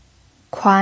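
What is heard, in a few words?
"kuan" second tone, pinyin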